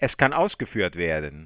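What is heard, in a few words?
ready to execute, German; Samsung SGH-i310; Voice Sounds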